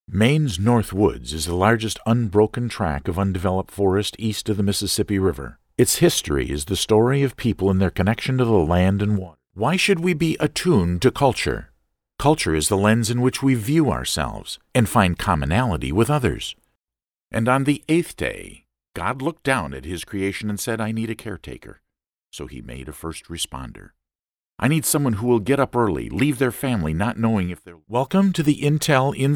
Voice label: Narration